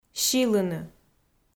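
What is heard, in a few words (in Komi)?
"силыны", Komi, female; kpv audio dict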